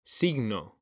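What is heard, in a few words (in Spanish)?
"signo", male; mekanta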